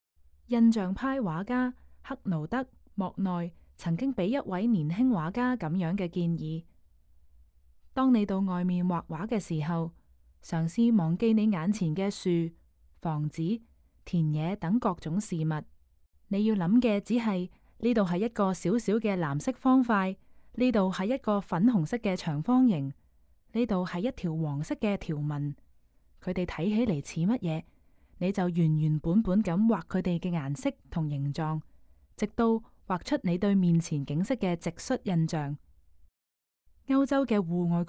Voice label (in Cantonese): Canto; audio guide